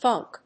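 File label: "funk", English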